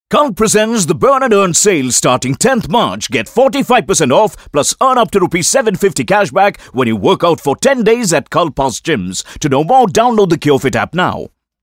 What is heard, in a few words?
ENGLISH AdFilm; CULT